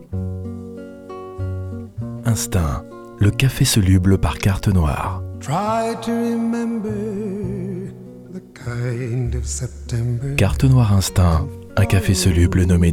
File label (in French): Publicite